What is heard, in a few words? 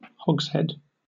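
"hogshead", British English; pronunciations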